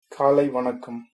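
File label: good morning, Tamil, male; காலை வணக்கம்; kaalai vanakkam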